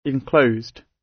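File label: "enclosed" British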